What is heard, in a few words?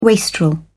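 "wastrel", British English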